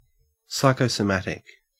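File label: "psychosomatic", Australian English; pronunciations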